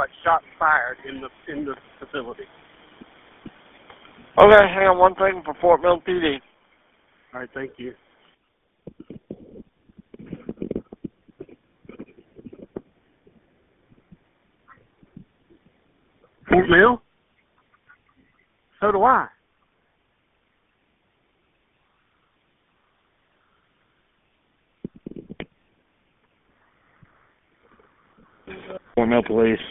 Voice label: Peach Stand Shooting 911 call; Fort Mill; Deadly Peach Stand Shooting